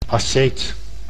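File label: "asceet", Dutch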